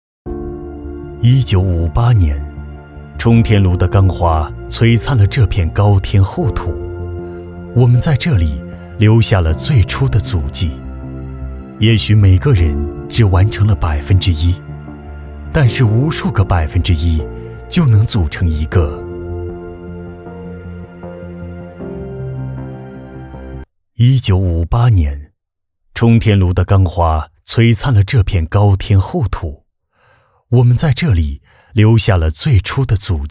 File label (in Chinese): 中车宣传